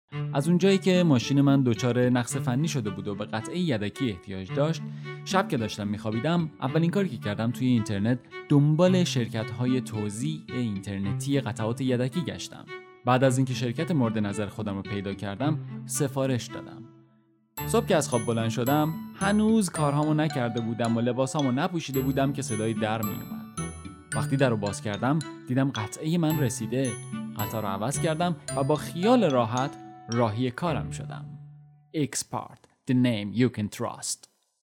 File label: Persian-Farsi male